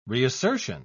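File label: "reassertion", British English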